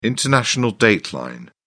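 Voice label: "international date line", British English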